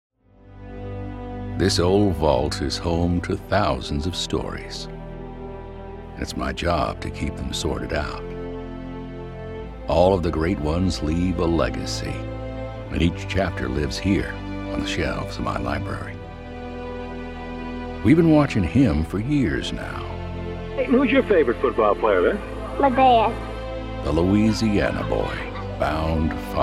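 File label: narration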